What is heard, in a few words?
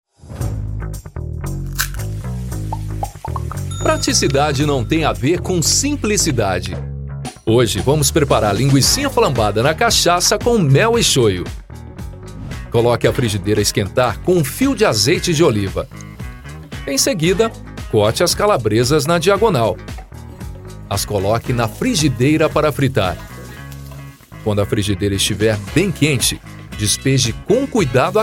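e-learning, Portuguese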